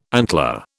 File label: "antler", British